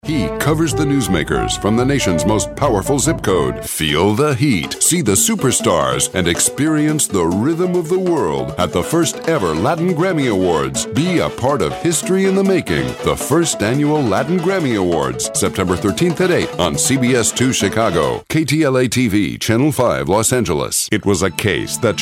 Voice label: american tv affiliate